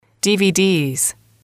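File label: "dvds", English, female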